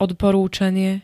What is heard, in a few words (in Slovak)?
"odporúčanie", slovenské; odporúčanie -nia -ní s.